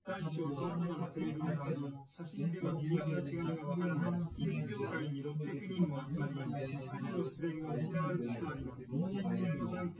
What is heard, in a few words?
male; SiSEC08; SiSEC underdetermined